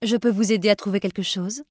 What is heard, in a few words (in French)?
aider trouver quelque chose, human female; PhoenixCraft-1.16.5; PhoenixNPCPack; minecraft